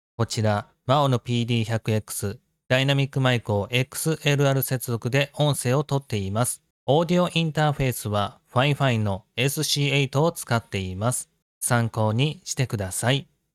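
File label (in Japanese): maono PD100X XLR接続音声; AmpliGame SC8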